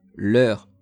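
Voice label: "leurs", French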